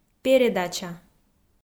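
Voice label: "передача", Komi, female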